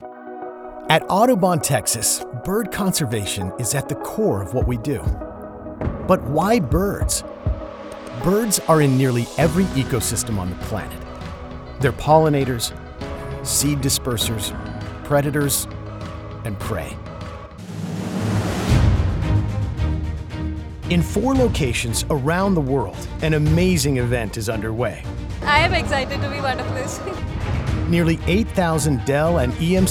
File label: Narration